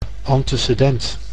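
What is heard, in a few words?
"antecedent", Dutch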